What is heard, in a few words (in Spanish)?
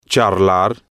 "charlar", spanish